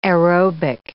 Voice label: "aerobic", English

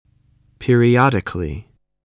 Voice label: "periodically", voice, English

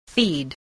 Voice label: "feed", spelling

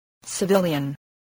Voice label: "civilian", English, voice

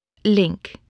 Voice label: "link", spelling

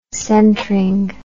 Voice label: "centring", English

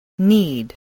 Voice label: "need", voice

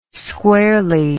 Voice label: "squarely", english

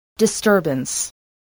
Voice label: "disturbance", spelling

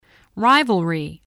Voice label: "rivalry", English spelling

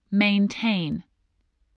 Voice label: "maintain", spelling voice

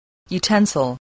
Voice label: "utensil", English

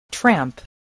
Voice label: "tramp", voice

